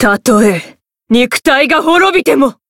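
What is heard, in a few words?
variable chant, Japanese; minalca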